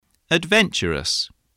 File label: "adventurous", English voice